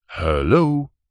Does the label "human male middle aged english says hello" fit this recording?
Yes